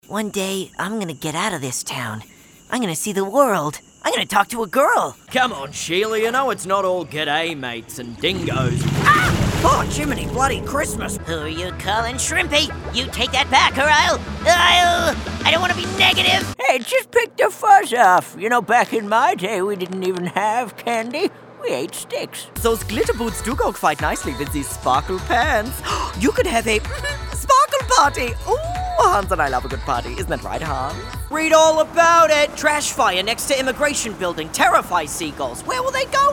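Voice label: animation men, voices men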